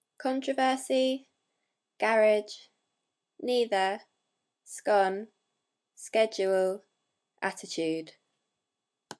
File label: brighton england female